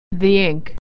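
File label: "ink", American English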